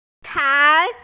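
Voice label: "chai2", Mandarin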